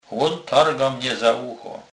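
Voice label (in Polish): "targac", Kurpie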